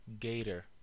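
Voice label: "gator", American English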